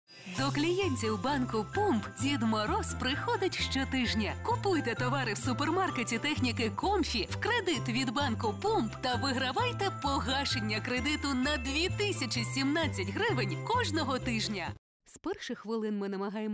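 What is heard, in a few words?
Ukrainian, female; Professionelle Sprecher und Sprecherinnen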